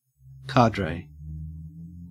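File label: "cadre", Australian English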